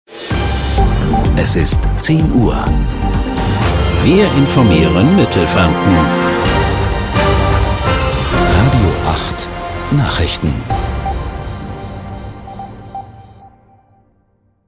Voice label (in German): Nachrichten; RADIO 8; 10 Uhr